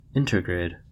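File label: "intergrade", US English; pronunciations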